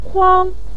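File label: "kuang", Mandarin Chinese, neutral tone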